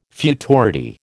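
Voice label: "futurity", American English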